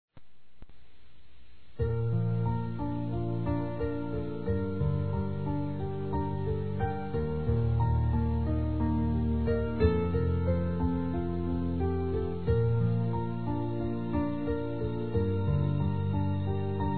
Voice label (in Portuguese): MATERNIDADE, Telemensagens; Aniversário Infantil